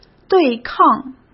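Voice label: "对抗", Mandarin Chinese; chinese-flashcards; hsk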